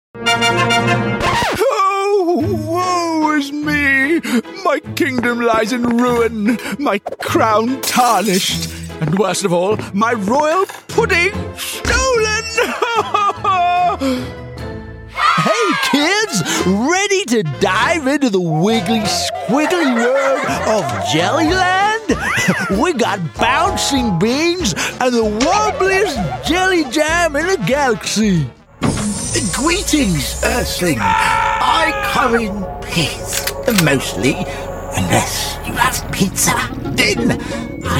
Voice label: british male voice character, Warm British Male Voice With Indian Heritage